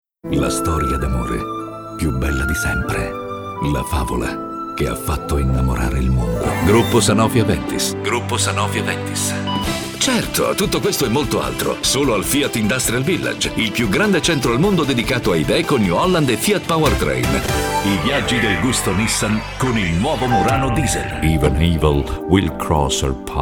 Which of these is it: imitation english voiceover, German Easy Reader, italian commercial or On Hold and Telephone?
italian commercial